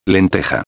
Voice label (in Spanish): "lenteja", espanhol; palavra do dia